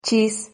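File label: "chis", Spanish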